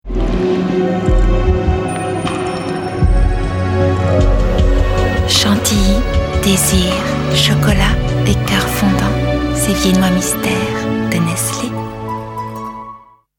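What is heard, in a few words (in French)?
mystique, voix off; Viennois; Complice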